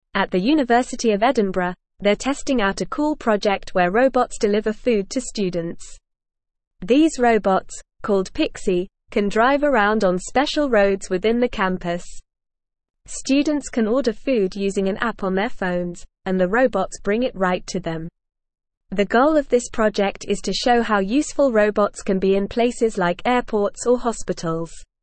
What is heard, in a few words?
English Upper Intermediate NORMAL Reading; English Newsroom; Autonomous Food Delivery Robots Transforming Univ